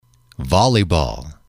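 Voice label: "volleyball", English, male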